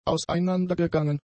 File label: "auseinandergegangen", German; adjectives